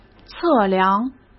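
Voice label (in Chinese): "测量", Mandarin Chinese; chinese flashcards; hsk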